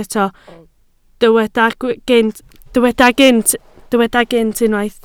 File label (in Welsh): "gynt", Welsh